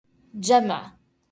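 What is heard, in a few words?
"جمع" Arabic; to collect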